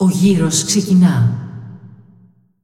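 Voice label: announcer female tournaments round start; el gr; clash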